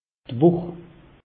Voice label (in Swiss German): "woch", alsace; meteo